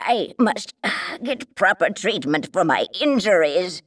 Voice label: Female; baldurs1